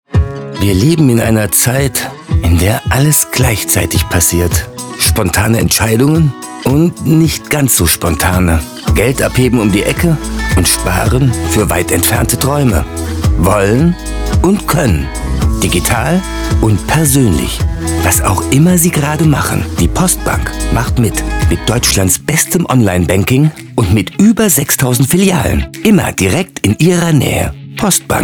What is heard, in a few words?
Commercial; Postbank